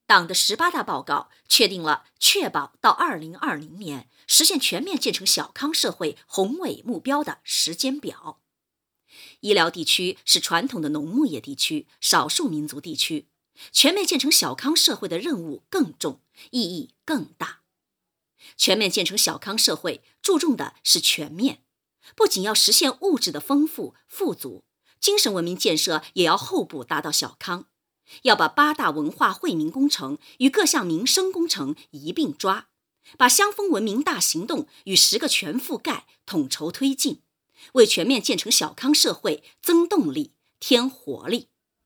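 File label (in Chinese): 党政专题女声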